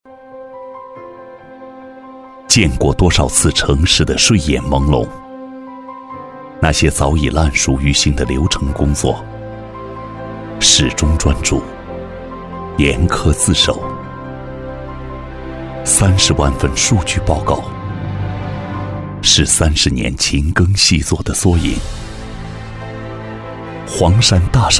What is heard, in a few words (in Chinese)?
男 企业宣传 低沉走心 感性; 男138-大气激情; 高端大气